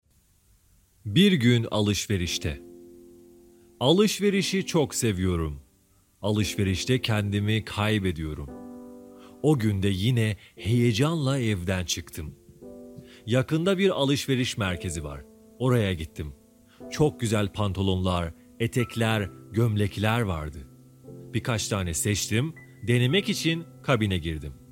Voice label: Turkish Podcast